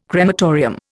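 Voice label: "crematorium", Indian English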